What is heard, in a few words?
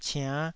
"ciann5", taiwanese